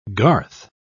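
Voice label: "garth", British English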